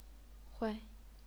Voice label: "huai0", chinese pinyin